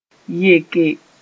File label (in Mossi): "yeke", moore